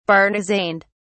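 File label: "bartizaned", British English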